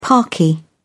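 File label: "parky", British English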